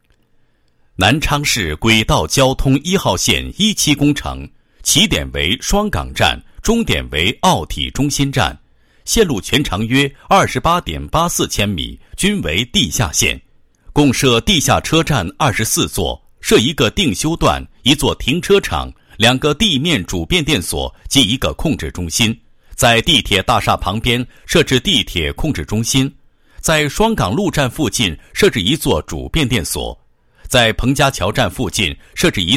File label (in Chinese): 男 工程; 南昌市轨道交通1号线一期工程